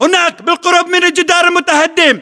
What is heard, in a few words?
landmark near wallbroken, localized english battlechatter